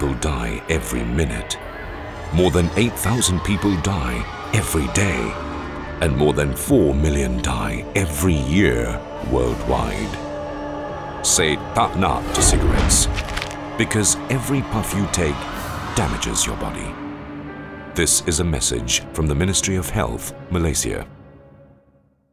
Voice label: Dying, radio, eng; taknak; English